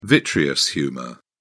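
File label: "vitreous humour", English, British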